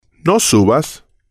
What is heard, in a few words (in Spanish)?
no subas, Spanish